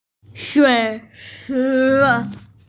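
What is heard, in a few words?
"shui chi", Mandarin Chinese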